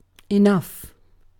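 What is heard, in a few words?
"enough", British English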